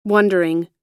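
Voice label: "wondering", US English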